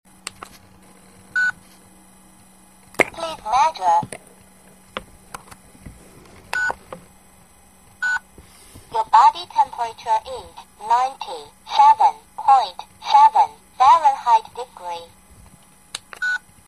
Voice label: talking ear thermometer